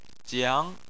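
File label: "jiang", Chinese, second tone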